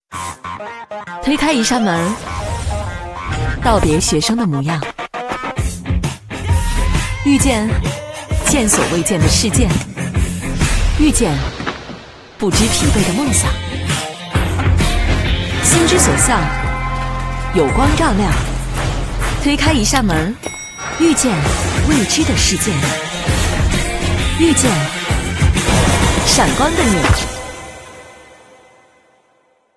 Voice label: 女 综艺 真人秀; 江苏卫视青春职场观察类真人秀; 闪闪发光的你

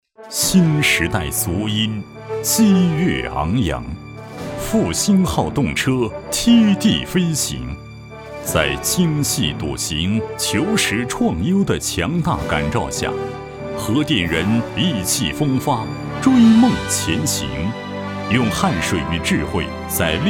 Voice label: 男 正统大气 核电

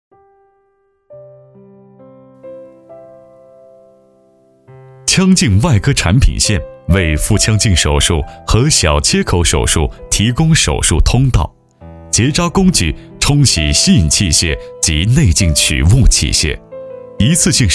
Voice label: 男 专题 医疗

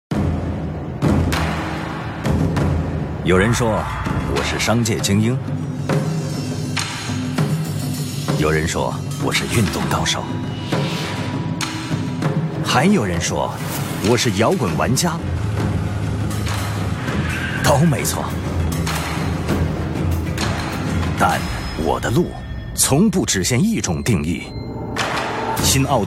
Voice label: 男 广告 国语男声配音; 新奥迪新A7 独具 异格

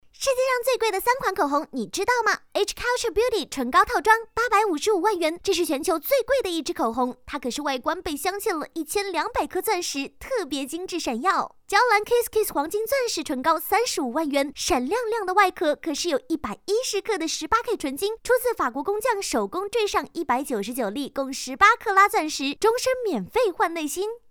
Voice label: 女,抖音视频,可爱,甜美,搞怪风格

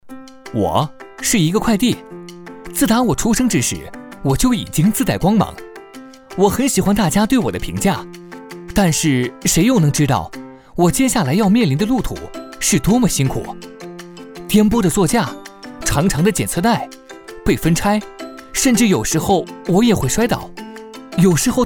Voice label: 男 角色MG; 一个快递

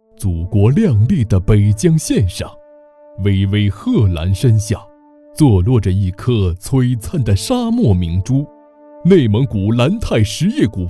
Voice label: 男 大气 盐业公司宣传片

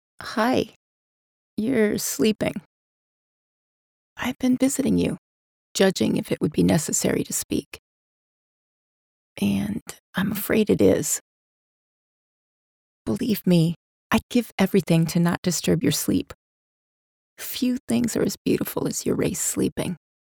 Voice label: 外籍女-有声小说独白

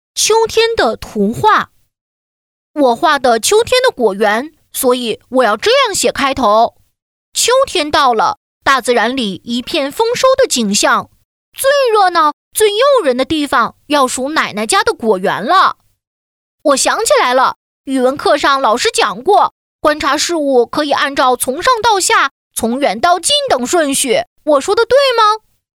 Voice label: 女 童声; 开心果

